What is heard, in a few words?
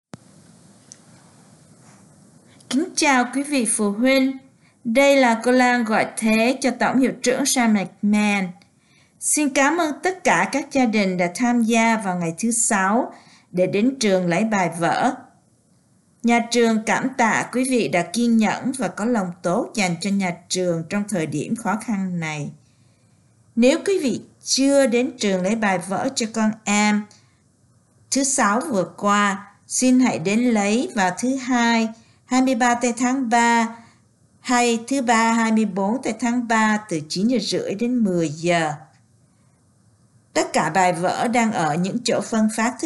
vietnamese